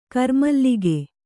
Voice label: "ಗಿರಿಮಲ್ಲಿಕೆ", Kannada

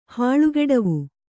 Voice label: "ಹಾಳುಗೆಡವು", kannada

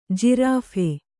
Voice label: "ಜಿರಾಫೆ", kannada

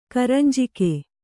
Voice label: "ಕರಂಜಿಗೆ", Kannada

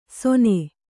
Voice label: "ಸೊನೆ", Kannada

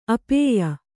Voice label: "ಅಪೇಯ", Kannada

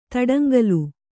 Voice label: "ತಡಂಗಾಲು", kannada

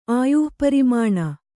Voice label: "ಆಯುಃಪರಿಮಾಣ", Kannada

